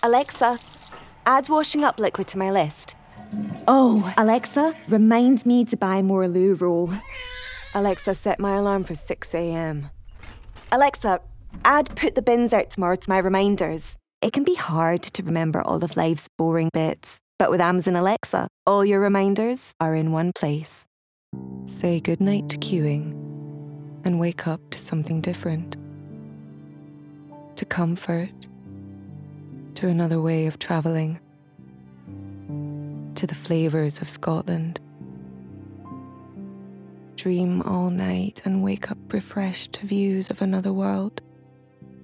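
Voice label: Commercial; Natural Accent: Scottish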